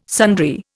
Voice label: "sundry", Indian